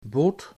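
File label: "bood", Dutch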